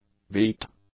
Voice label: "beaked", English; adjectives

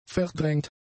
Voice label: "verdrängt", German; adjectives

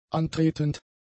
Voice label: "antretend", German; adjectives

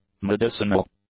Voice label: "medicinal", English; adjectives

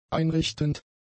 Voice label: "einrichtend", German; adjectives

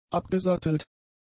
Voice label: "abgesattelt", German; adjectives

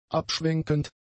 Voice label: "abschwenkend", German; adjectives

